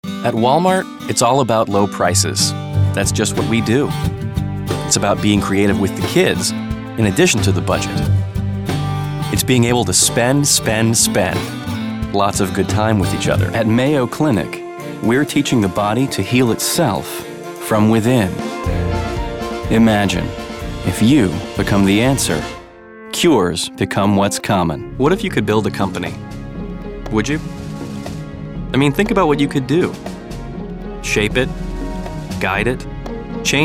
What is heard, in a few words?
Commercial